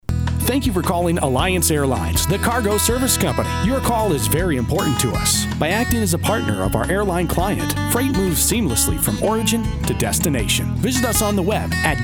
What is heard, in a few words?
On Hold